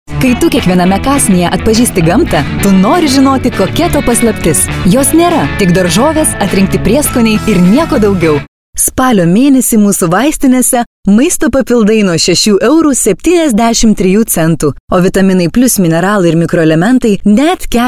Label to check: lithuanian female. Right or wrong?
Right